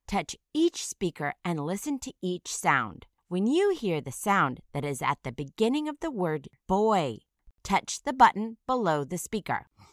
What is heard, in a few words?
"boy", initial phoneme isolation